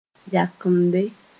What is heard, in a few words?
"yakemde", Moore